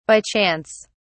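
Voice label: "by chance", English, British English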